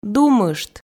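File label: "думышт", Komi, female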